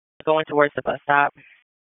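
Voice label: Police Dispatch, p25; Raleigh; Southeast District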